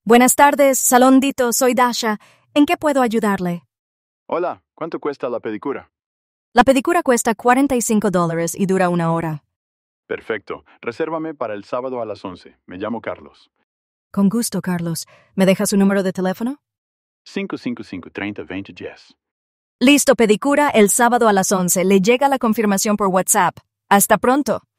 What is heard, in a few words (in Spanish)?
call es; ai-receptionist